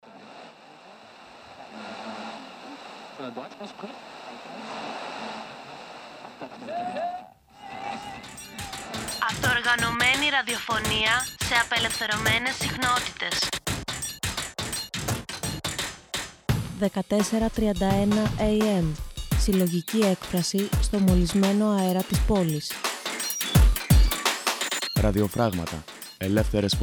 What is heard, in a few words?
radio spot electro